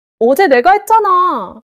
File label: neutral